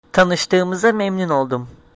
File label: meet, turkish